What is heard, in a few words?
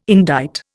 "indict", Indian